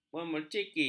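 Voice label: "memerciki", Malay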